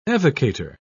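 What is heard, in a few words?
"evocator", British English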